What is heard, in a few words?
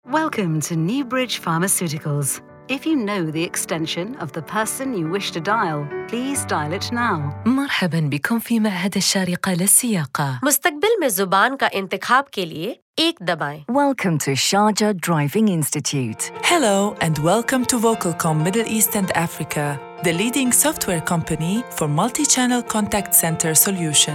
IVR; SHOWREEL; AUDIO POST Production